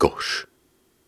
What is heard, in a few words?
Soft Syllables and Imaginary Words, Viking Vocal